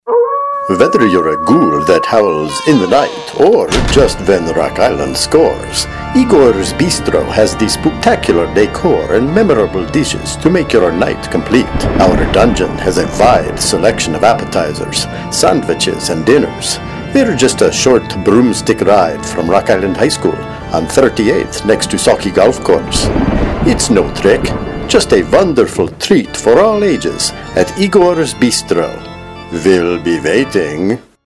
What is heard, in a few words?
Radio Ad; Howl